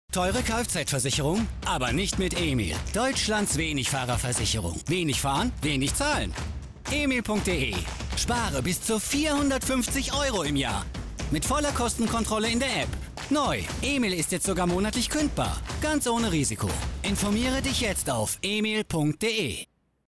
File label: tv spot